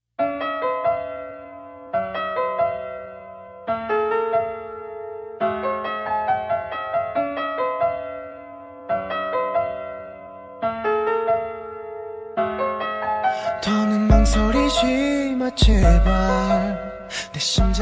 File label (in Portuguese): NATAL ROMANTICO FEM, Telemensagem de Natal, Voz Feminina; Romântica